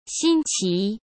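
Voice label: "新奇", Mandarin Chinese; chinese flashcards; hsk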